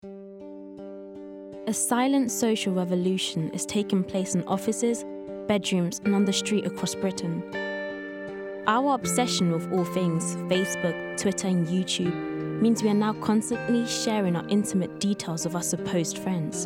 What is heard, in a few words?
Documentary w Music